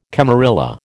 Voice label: "camarilla", American

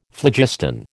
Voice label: "phlogiston", American

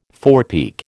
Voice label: "forepeak", American

